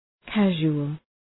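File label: "casual", English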